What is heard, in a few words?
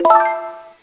speak female